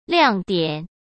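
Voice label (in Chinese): "亮点", Mandarin Chinese; hsk; chinese-flashcards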